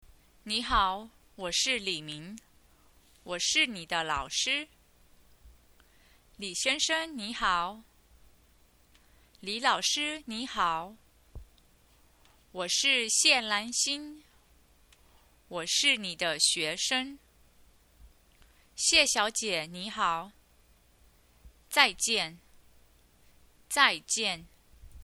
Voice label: conversation, female voice; so even the male dialogue has female voice; Chinese